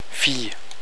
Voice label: "fille", French; fille [fiye]